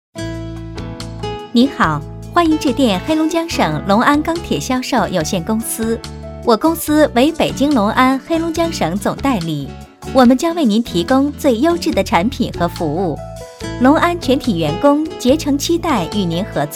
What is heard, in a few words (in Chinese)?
女 彩铃 合成; 龙安公司